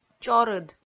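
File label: "чорыд", Komi-Zyrian, female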